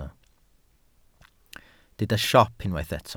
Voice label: "siop", Welsh